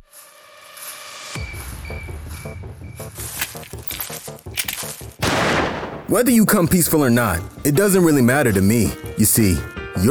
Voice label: Character

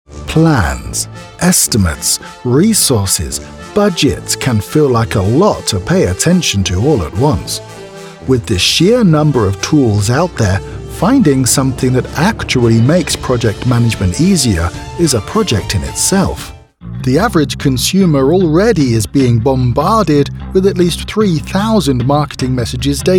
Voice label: corporate narration

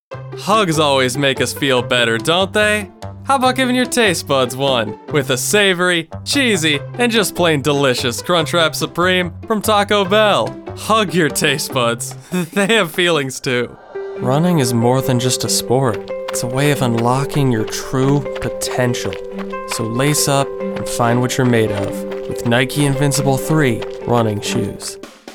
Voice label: Commercial Demo

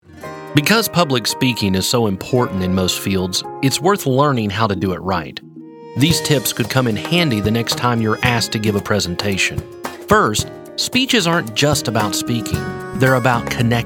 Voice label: Narration

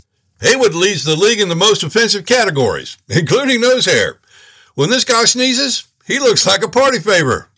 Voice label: commentator; The legend of Brick Stephen Smith

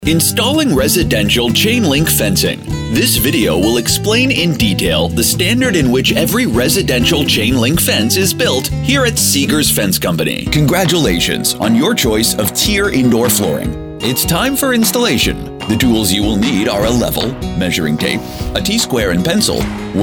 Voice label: ELearning Voice

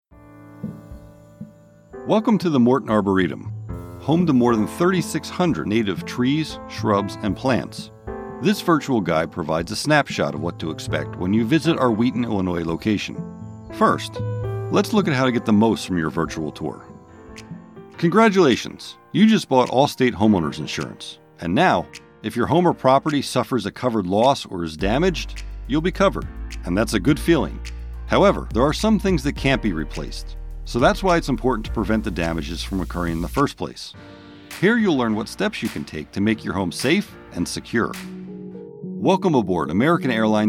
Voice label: eLearning with Music

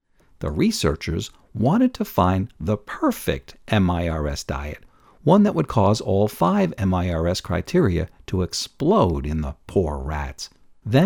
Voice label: reading sample; Eat Rich-Live Long

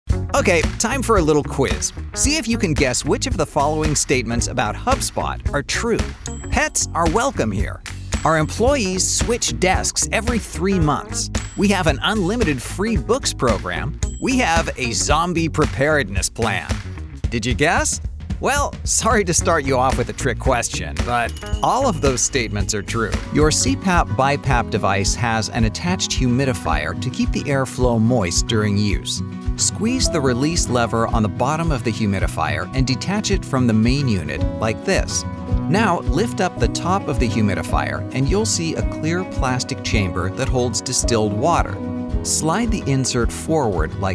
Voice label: Elearning

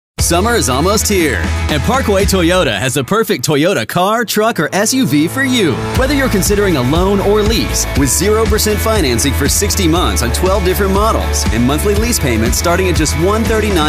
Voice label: Automotive VO

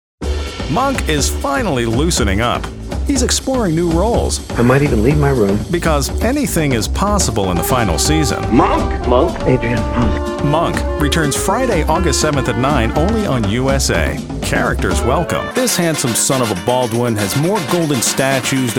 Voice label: Promo Demo

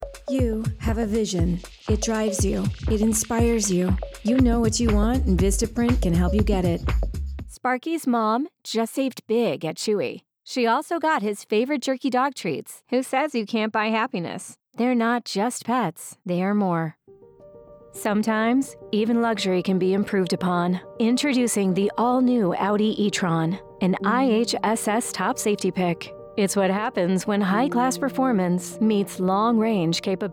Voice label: Commercial Demo

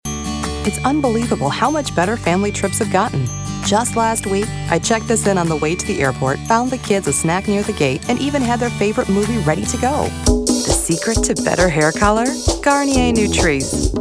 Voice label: Commercial Demo

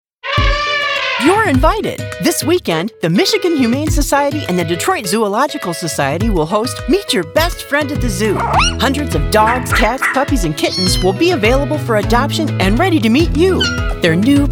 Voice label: Commercial

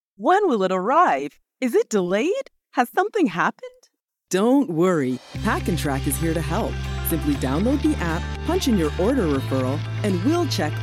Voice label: Explainer Narration

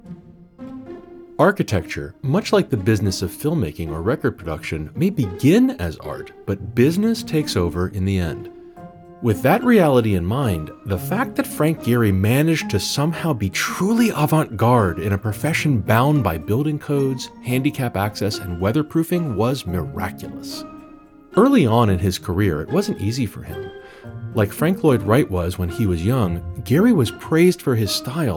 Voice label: Documentary; Frank Gehry

